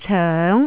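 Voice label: "coeng2", Cantonese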